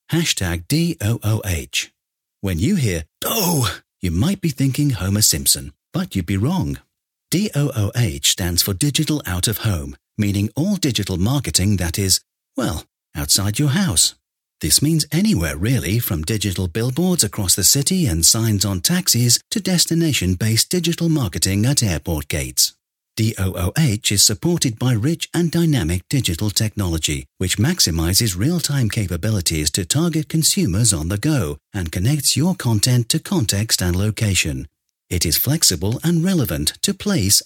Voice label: British Voiceover; HEINEKEN COMMERCE WEEK 2018 EVENT; DOOH